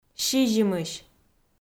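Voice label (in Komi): "сизимысь", female; kpv; audio dict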